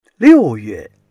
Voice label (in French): "liu4yue4", chinois